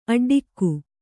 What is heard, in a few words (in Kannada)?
"ಅಡ್ಡಿಗೆ", Kannada